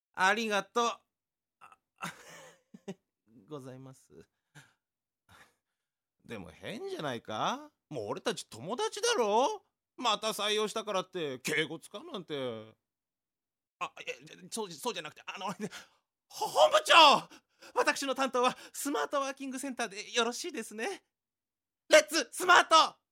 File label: male voice